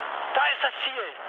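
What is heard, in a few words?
German near waypoint mood med, speech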